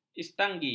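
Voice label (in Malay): "istanggi", Malay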